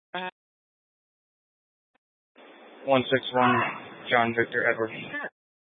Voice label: Mesa PD Dispatch